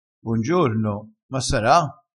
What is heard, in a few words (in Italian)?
"bongiorno", dialettale